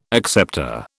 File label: "acceptor", British